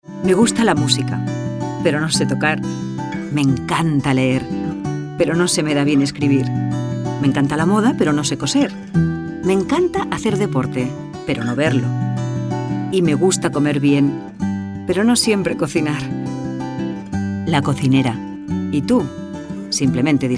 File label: female spanish food comercial